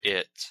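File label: "it", English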